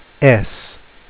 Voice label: name s, phonics